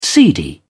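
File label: "seedy", British English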